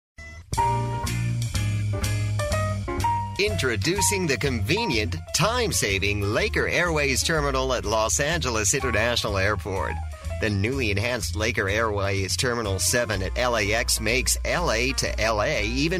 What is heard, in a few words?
narrator USA M